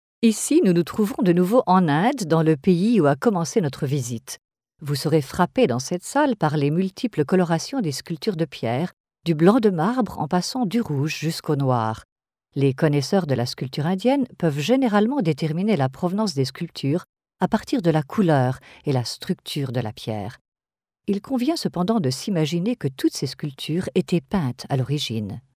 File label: Audio Guide French